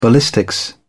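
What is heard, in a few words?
"ballistics", British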